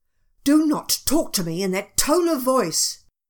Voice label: tone